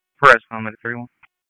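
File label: EMS DISP, radio; wake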